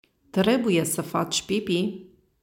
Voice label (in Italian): Rumeno